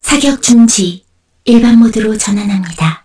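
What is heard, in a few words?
Vox Victory, Korean; heroes; Kara; kings raid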